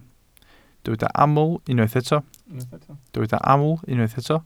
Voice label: "aml", Welsh